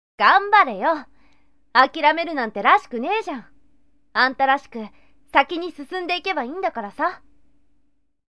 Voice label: sample girl